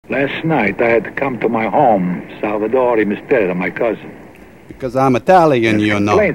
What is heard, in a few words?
italian